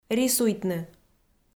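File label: "рисуйтны", Komi, female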